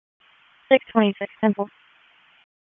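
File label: Dispatch, p25; WSO DISP 1; wake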